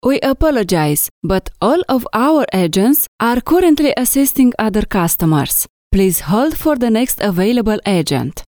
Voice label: IVR English